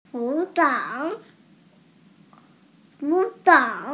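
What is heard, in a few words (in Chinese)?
"gu3zhang3", Mandarin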